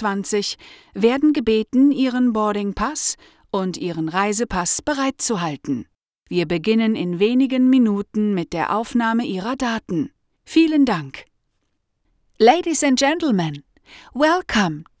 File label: german english airport message